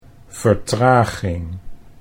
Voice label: "vertraging", Dutch

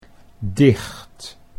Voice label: "dicht", Dutch

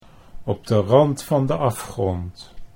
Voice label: "op de rand van de afgrond", Dutch

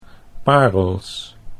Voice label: "parels", Dutch; sieraden

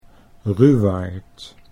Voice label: "ruwaard", Dutch

